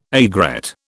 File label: "aigrette", British